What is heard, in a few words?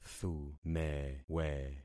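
"thumewe", concatenated syllables; Affixing experiment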